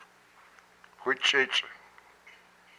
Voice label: "my elbow", hupa

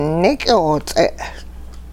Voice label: your sinew, hupa

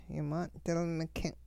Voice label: modern playing cards, hupa

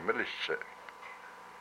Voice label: "its gall", hupa

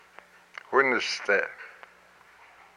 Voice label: "my body", hupa

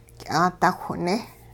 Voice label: I am picking up acorns, hupa

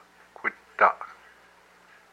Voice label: my mouth, hupa

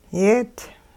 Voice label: over there farther off, hupa

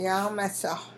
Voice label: animals mouths inside, hupa

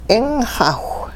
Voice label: bail water, hupa

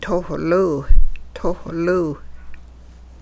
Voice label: y all dive swim underwater, hupa

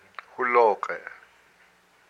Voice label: "my calf", hupa

